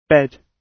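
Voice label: "bed", British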